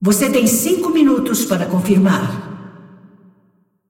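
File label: announcer female, pt br; tournaments lockin 5min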